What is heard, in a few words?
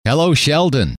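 hello, male; trainer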